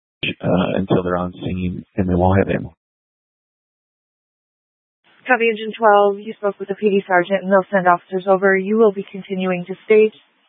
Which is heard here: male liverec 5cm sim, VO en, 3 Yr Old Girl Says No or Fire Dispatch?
Fire Dispatch